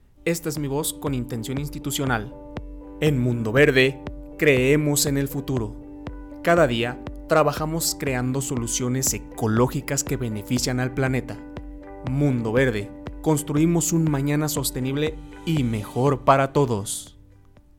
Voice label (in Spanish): institucional